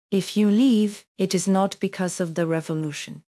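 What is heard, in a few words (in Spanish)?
Voice Acting es F, Spanish, female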